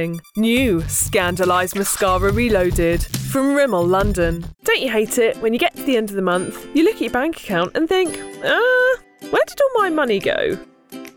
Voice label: commercial